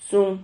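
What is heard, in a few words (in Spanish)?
"zum", Spanish syllable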